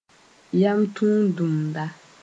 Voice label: "yam tʋntʋmda", Moore